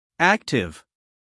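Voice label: "active", American English, male; 1000 hours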